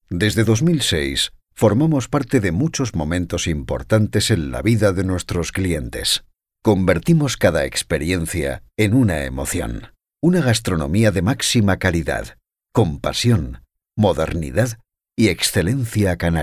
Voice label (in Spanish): narrativa